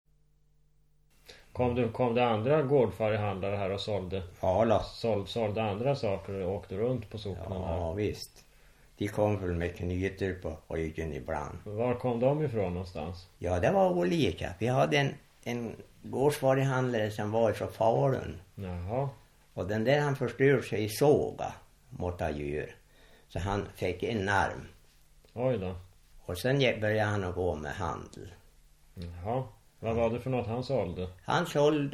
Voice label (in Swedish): Säfsnäs, dialekter; Svenska sagor; Folkminnen